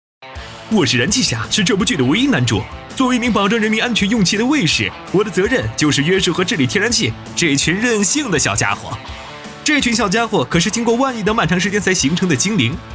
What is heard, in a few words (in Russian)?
Мужской китайский